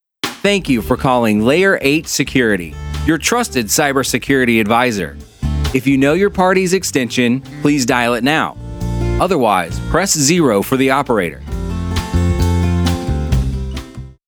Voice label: American Male Voice, On Hold Messaging, Accent: English American - Southern/Texan (when needed); Tone / Style: Friendl